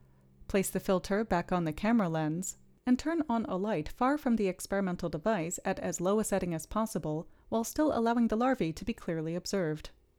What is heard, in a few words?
Gong Voiceover